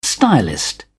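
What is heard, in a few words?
"stylist", English, uk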